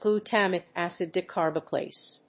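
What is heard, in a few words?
"glutamic acid decarboxylase", English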